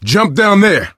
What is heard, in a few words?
misc directional, coach, survivor voice